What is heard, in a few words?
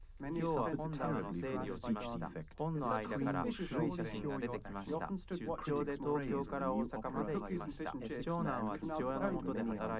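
male live recording 130ms 5cm mix; SiSEC10; underdetermined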